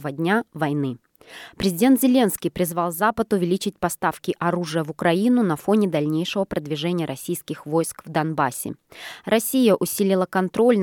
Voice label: russian; ukraine 100 days